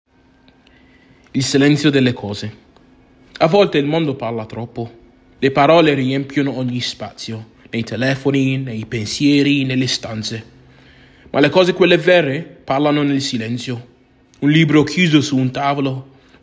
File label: Italian